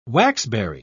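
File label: "waxberry", British English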